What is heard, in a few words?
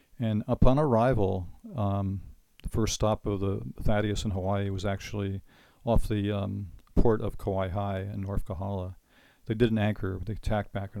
Hawaiian Phrases